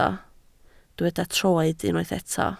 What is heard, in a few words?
"troed", Welsh